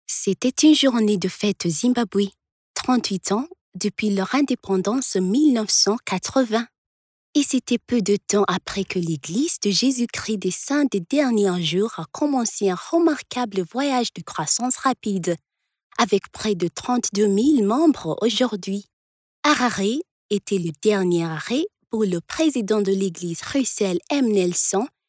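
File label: French African female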